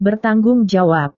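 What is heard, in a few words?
"bertanggung jawab", Indonesian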